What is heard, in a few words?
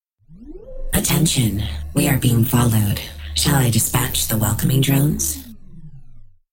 dispatching welcoming drones female normal personality